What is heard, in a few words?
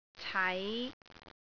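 "cai5", Cantonese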